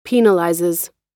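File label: "penalizes", American English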